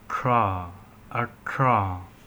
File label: Voiceless postalveolar non-sibilant affricate